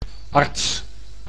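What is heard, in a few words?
"arts", Dutch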